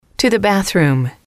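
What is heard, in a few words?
to the bathroom, English, female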